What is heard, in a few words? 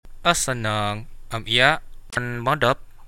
goodnight, Central Dusun